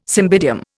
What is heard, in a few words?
"cymbidium", Indian